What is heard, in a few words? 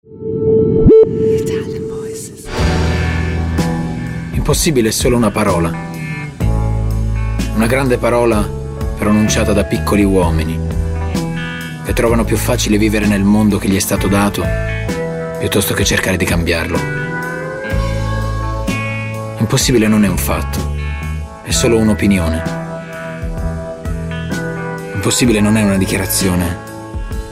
ITALIAN VOICES, male voices; Adidas